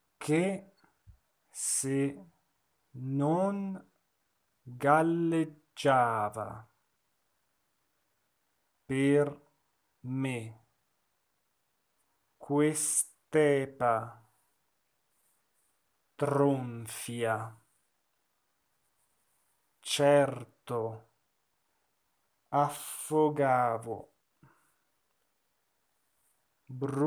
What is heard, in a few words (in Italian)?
monotono; Falstaff; Ehi Taverniere